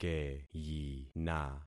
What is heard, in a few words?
"keyina", concat syllables; Affixing experiment